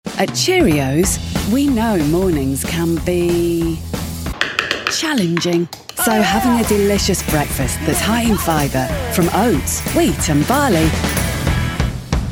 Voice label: commercial